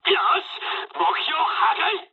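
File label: bomb success mood med, speech japanese; War Thunder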